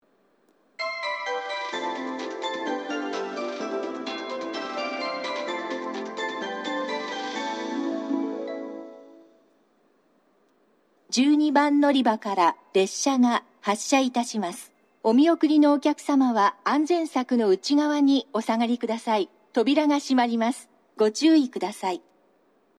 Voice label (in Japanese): 12番のりば発車メロディ＋発車予告放送, 西九州新幹線ホーム 自動放送